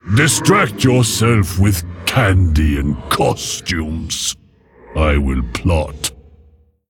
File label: Male Mogu HAPPY HALLOWEEN